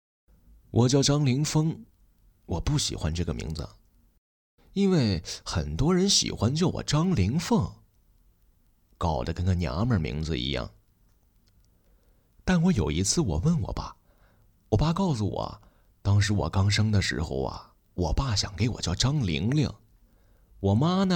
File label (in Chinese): 小说